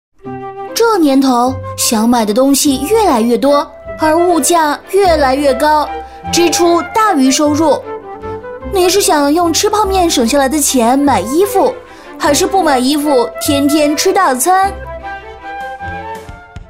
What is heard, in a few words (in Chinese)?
女; 飞碟说; 云商铺